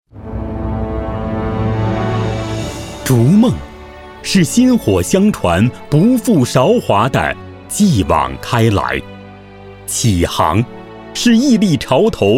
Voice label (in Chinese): 男 高等院校宣传片; 伊犁师范大学